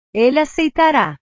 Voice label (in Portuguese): "ele aceitara", portugues; verbos